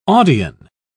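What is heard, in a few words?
"audion", British English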